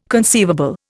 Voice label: "conceivable", Indian